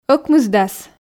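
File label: "ӧкмысдас", Komi, female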